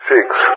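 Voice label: "6", English speech; Digits; Allied Flight; DCS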